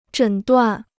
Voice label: "诊断", Chinese